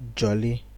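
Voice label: "jolly", Nigerian Pidgin, male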